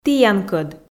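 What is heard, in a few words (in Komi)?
"тіянкӧд", Komi, female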